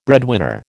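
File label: "breadwinner", American English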